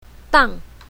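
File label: "dang4", Chinese pinyin, south, female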